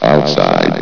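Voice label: "outside", male synthesized announcer voice; blizzard; hlvox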